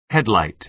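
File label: "headlight", American English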